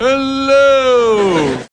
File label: long hello